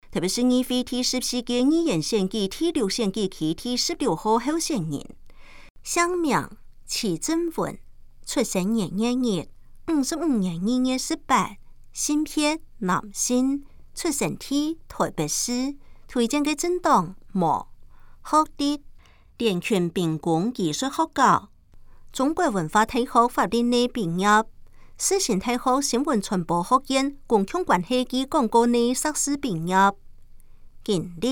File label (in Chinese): 客語; 臺北市; 有聲公報; 市議員; 臺北市議會第14屆議員第6選舉區 (大安、文山區)候選人介紹